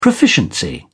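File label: "proficiency", English, British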